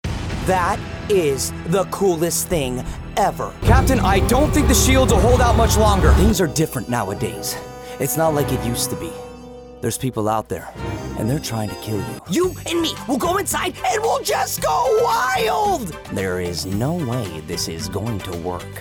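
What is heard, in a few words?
animation, men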